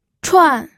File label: "chuan4", pinyin